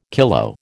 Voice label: "kilo", American